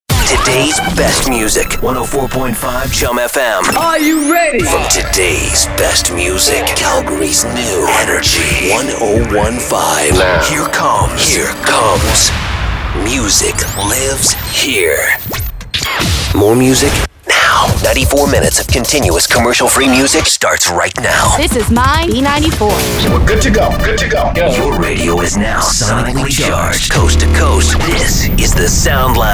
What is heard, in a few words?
Top 40 Imaging